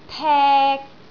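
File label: "tek3", Cantonese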